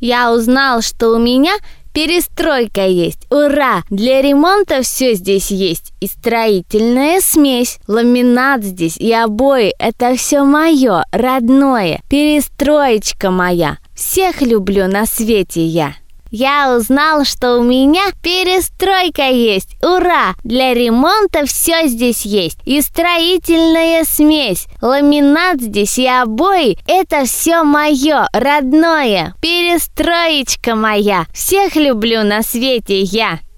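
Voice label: detskiy golos, child's voice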